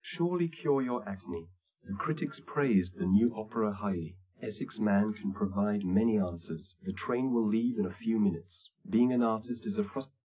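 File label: male speech; SiSEC10; underdetermined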